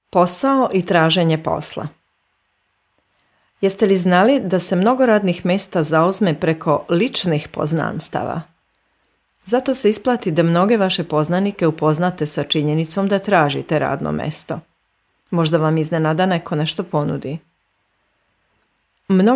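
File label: Serbian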